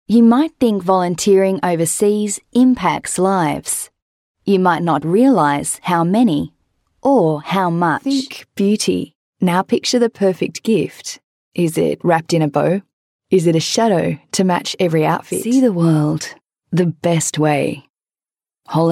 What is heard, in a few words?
Soft Sell